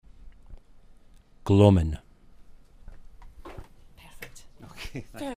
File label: "gloamin", Scots